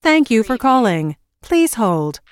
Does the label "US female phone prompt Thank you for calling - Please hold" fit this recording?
Yes